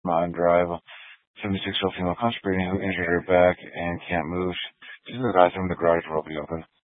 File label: Fire Dispatch, scanner